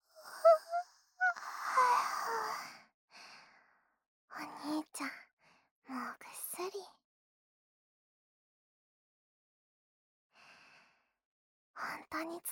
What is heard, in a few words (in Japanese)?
添い寝, ASMR; いっしょぐらし ～妹系彼女編～